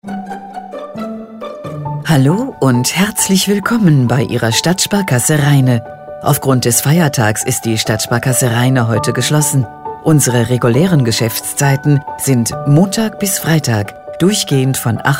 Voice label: Ansage

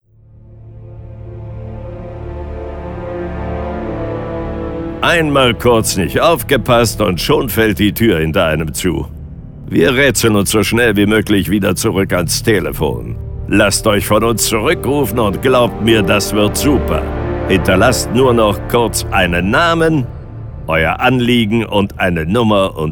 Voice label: AB Ansage

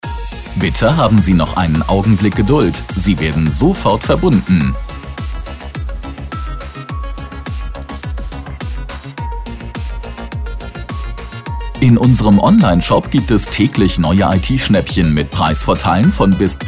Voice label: Ansage

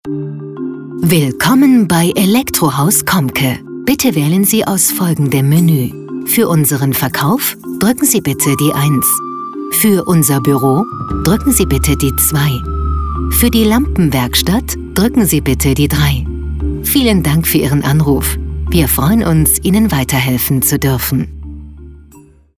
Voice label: Telefonansagen fuer Elektrotechnik; Komke

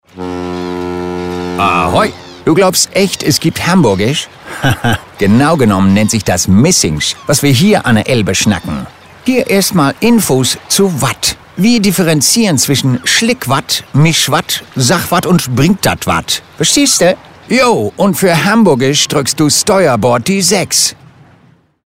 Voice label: Hamburgisch; Telefonansage

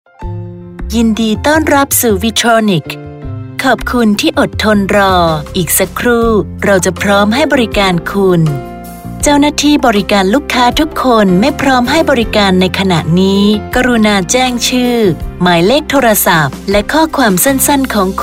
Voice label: telefonansagen sprecher tha; Native Speaker

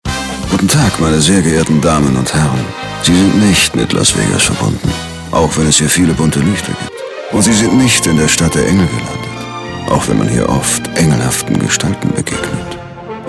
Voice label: telefonansagen sprecher; nicolas cage

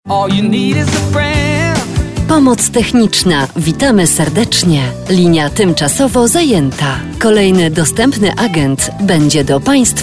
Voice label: telefonansagen sprecher pl; Native Speaker

